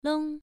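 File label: "long", Mandarin Chinese syllable, first tone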